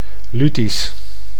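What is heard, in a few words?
"luetisch", Dutch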